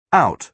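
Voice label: "out", British English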